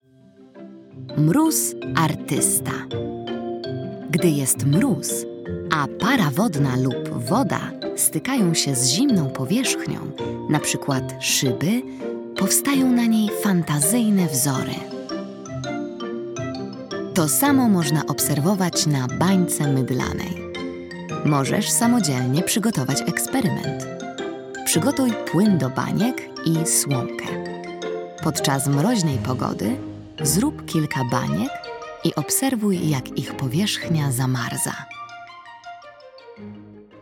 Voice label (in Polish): narracja; czasopismo mis; zima